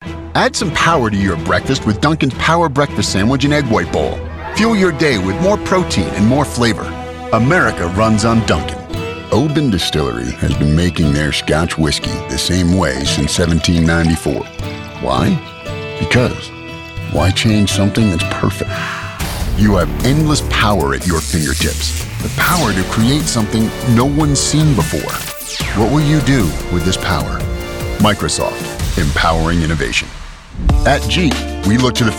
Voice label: Commercial, Voice Over